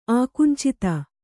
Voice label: "ಆಕುಂಚಿತ", Kannada